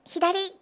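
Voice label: "hidari", Japanese; ainote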